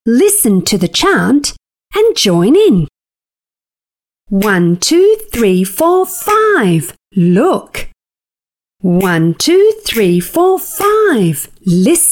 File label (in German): englisch hoeruebung; spatzenpost